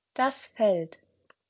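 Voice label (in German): "Feld", German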